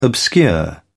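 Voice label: "obscure", British English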